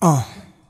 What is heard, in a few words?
"uh", male